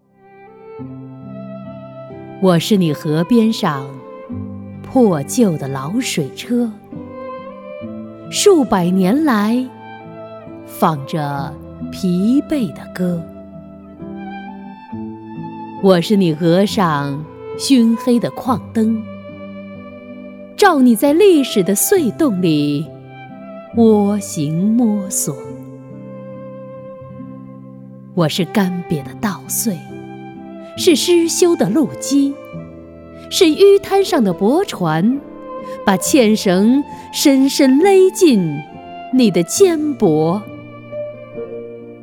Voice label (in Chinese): 朗诵; 祖国啊我亲爱的祖国